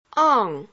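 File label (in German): Phoneme, chinesisch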